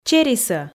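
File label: "черисӧ", Komi, female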